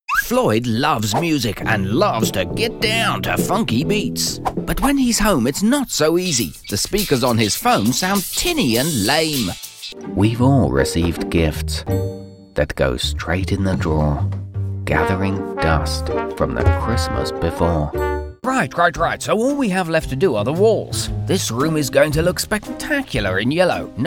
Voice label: Commercials Voiceovers